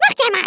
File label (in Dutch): "you'll regret that", Dutch; CyberMycha Extra